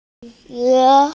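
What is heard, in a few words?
"rain boots", Mandarin, hearing-impaired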